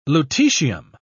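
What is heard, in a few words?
"lutetium", British English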